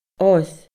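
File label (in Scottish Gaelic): "oir", Scottish Gaelic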